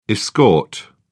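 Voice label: "escort", British English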